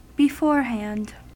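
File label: "beforehand", US English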